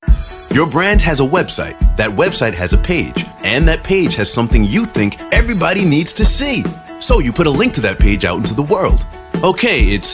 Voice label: VO Male